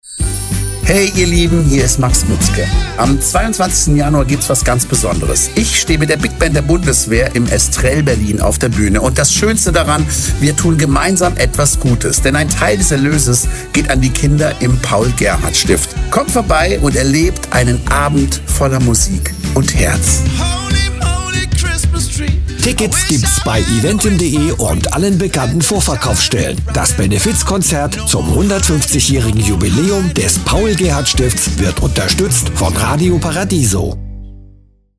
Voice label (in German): Spot; Paul Gerhart Stift; big band bundeswehr